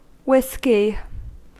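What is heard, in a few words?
"whisky" English, US; pronunciations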